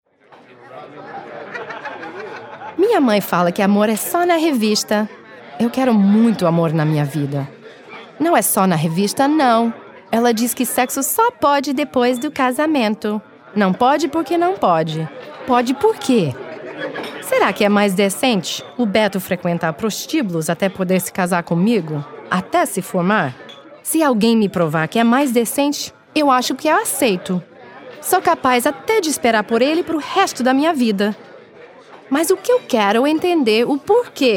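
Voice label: POR BR Narr Comedic Character, Portuguese Brazilian narration comedic character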